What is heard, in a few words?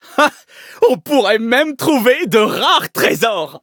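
loading, French; Cookie Run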